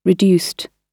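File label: "reduced", British English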